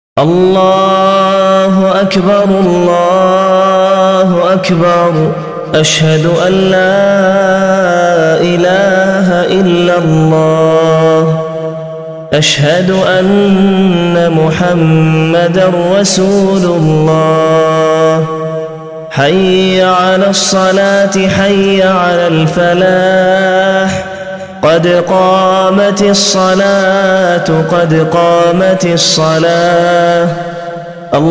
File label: iqamah; duaa